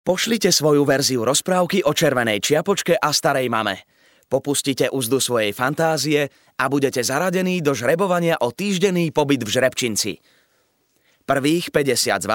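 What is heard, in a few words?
slovak male narration; voice demos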